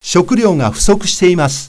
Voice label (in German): japanisch